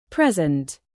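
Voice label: "present", noun, British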